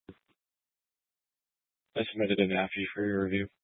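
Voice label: Police Dispatch, Scanner audio recording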